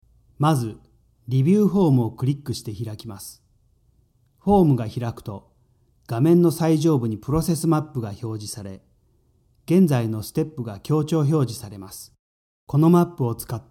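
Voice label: Japanese narration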